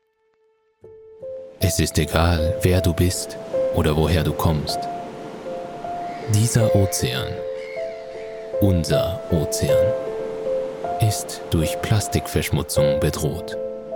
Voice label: german promos